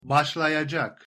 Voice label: "baslayacak", Turkish